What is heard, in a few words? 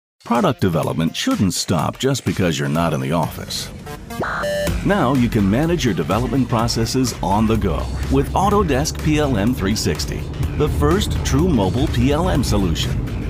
english american explainer videos; professioneller amerikanischer Synchronsprecher